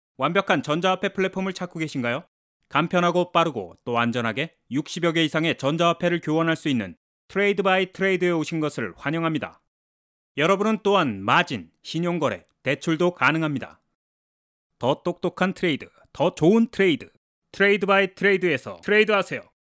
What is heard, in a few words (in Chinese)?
外籍 韩语 男 干音